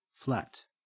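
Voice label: "flat", Australian English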